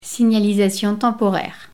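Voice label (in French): "signalisation temporaire", French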